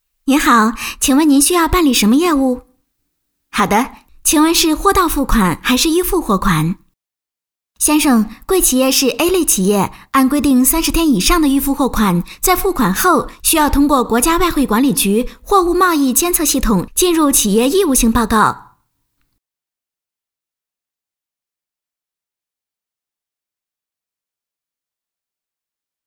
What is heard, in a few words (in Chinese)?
贸易外汇剧本女声客服角色-短剧配音